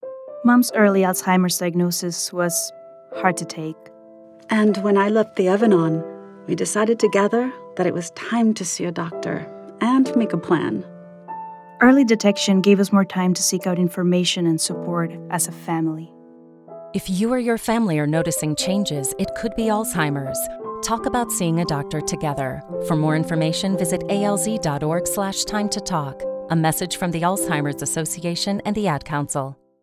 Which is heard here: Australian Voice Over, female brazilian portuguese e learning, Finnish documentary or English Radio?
English Radio